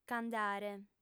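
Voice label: "nc'andare" Sardinian, female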